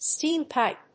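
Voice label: "steam pipe", English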